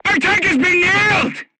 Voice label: voice message tank hit, vietnamese; War Thunder; crew tanks